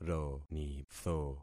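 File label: "ronitho", concatenated syllables; Affixing experiment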